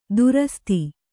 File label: "ದುರಸ್ತಿ", kannada